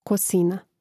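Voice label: "kosina", Croatian; Mrežnik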